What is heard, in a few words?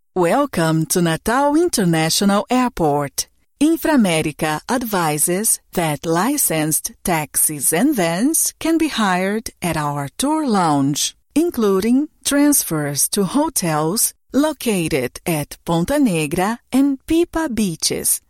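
Brazilian Portuguese Accented English; GoLocalise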